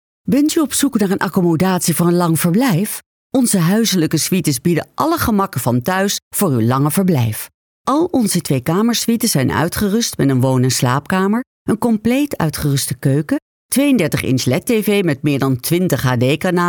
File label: Dutch Sincere